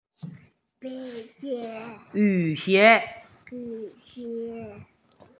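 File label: "yu3xie2", Mandarin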